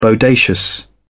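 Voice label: "bodacious", British English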